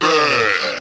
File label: "bleh", Voice